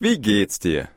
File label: Conversation